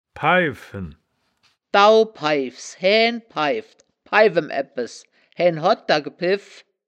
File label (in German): "paiffen", wittlicher; das wittlicher woerterbuch; woerterbuch vertonung